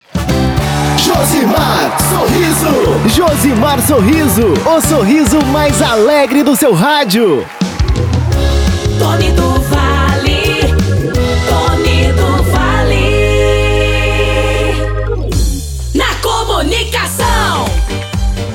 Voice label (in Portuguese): vinhetas locutores; ALVIM PRODUTORA